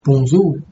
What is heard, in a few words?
good morning, mauritian creole